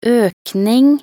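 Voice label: "økning", Norwegian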